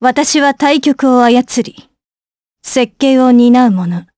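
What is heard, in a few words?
voice, Japanese; Isolet; kingsraid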